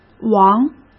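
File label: "王", Mandarin Chinese; hsk; chinese-flashcards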